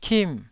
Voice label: "kim4", taiwanese syllables